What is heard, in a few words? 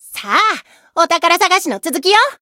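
win, Japanese; rajah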